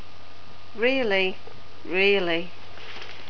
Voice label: "really", NZ English